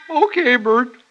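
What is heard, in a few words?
"okay", male voice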